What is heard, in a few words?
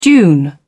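"dune", British English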